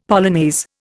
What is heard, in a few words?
"polonaise", Indian; Dictionary